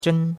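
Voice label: "zhen", Chinese, first tone; Cours-de-Chinois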